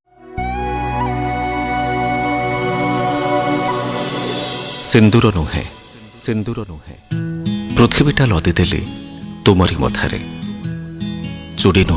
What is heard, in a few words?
MALE POETRY